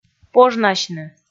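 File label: "пожнасьны", Komi, female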